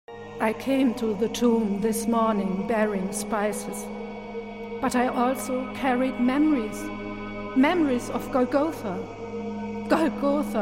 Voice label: German English, F, Narration, accent of germany

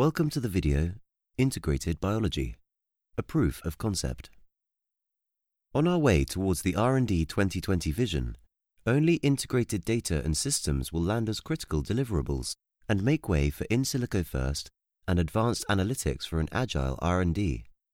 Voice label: English M Medical

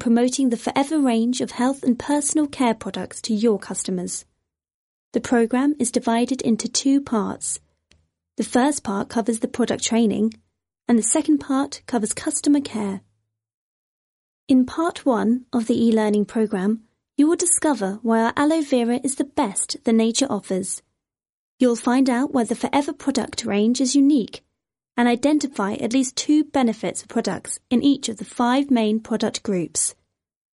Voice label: English F Elearning

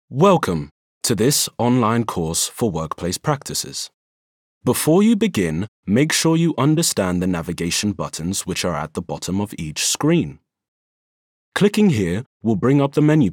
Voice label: English M eLearning

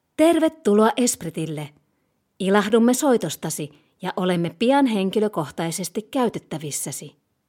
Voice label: Finnish, F, IVR

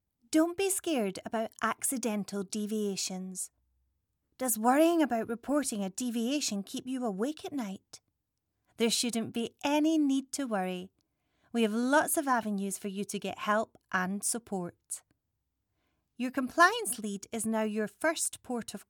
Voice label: English Scots, F, Explainer, accent of scotland

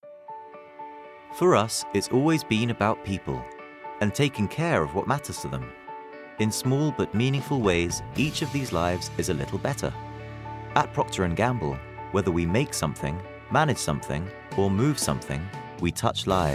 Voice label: English, M, Corporate; Reel; corporate voice over

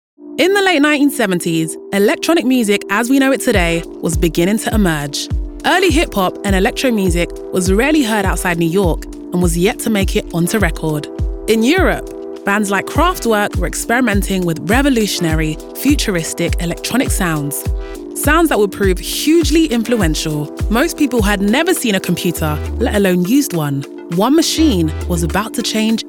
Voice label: English F Documentary; corporate voice over

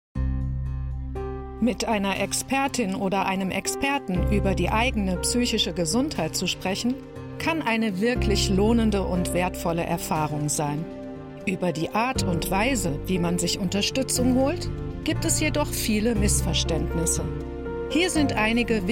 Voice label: German F Medical

